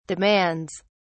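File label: "demands", British English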